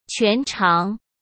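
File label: "全长", Mandarin Chinese; chinese flashcards; hsk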